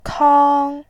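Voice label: "kang1", Mandarin Chinese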